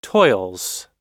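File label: "toils", US English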